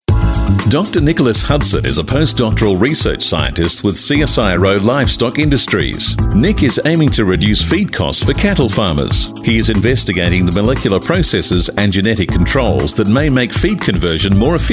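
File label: Corporate AU English Male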